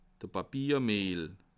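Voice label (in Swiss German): "papiermihl", Alsatian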